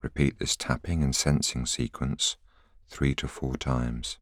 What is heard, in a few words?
voiceover